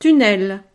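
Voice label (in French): "tunnel", French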